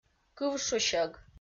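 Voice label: "кывшусьӧг" Komi, female; kpv audio dict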